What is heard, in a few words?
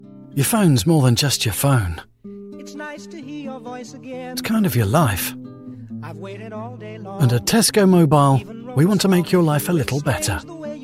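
male, British English, commercial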